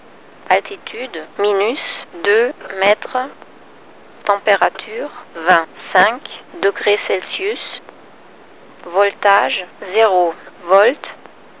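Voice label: msg fr f, French, female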